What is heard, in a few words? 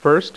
"first", dealer's speech; PowerPOKER Demo